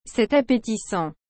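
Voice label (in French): "C'est appétissant", French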